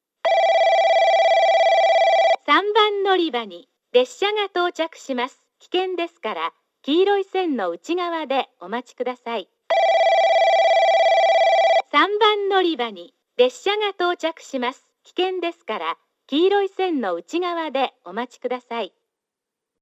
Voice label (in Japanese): 3番のりば接近放送 女声